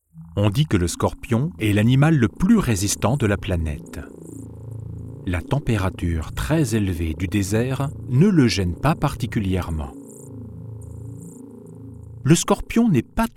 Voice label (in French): voix off docu; scorpion